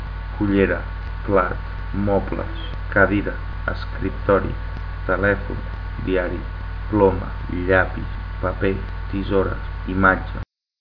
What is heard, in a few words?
catalan